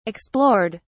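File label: "explored", English, British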